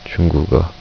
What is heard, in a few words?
"chinese", korean